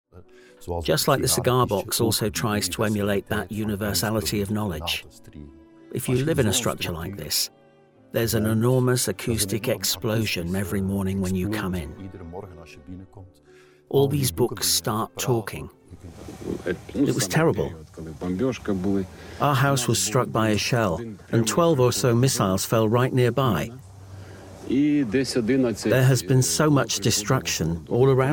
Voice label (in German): Britische Sprecher Synchronsprechen